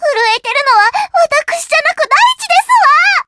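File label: encounter fear, Japanese; suzette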